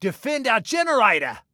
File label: Defend Generator, Male